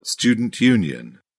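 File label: "student union", English, uk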